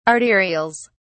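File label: "arterials", British English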